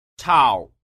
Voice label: "chao", tiếng trung; che bien

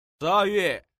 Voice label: "shi er yue", tiếng trung; cach noi thang

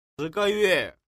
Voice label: "shi ge yue", tiếng trung; cach noi thang

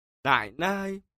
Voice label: "nai nai", tiếng trung

